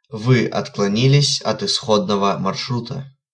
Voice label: Route Deviated, Russian, male